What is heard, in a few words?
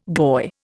"goy", Indian